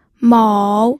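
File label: "mou", third tone, pinyin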